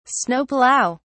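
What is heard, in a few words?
"snow plow", British English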